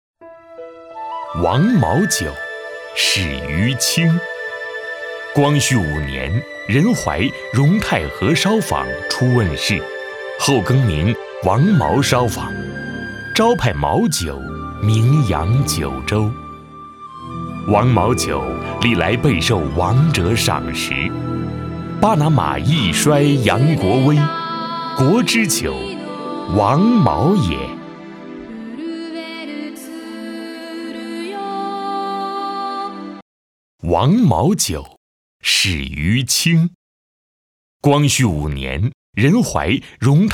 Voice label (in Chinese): 男 广告 酒; 王茅酒